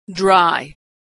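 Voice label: "dry", American English; vowel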